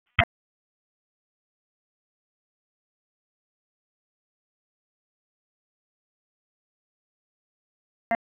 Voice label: Police Southeast District Tac; Raleigh; wake